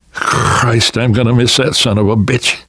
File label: grief biker, survivor voice namvet; Left 4 Dead 2; left4dead2 dlc3